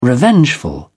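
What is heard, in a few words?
"revengeful", British English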